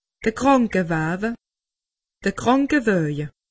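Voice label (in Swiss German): "krankewaawe", Bas-Rhin; medecine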